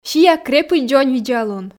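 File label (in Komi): сиа крепыд дзоньвидзалун, Komi, female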